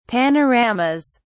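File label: "panoramas", British English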